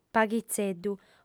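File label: "paghitzeddu" Sardinian, female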